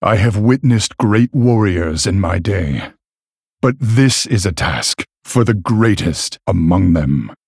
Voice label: Male Human; Varian Wrynn

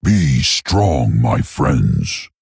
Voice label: Male Tauren; Hero

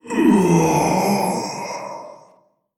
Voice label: Male Old God Death; Yogg-Saron

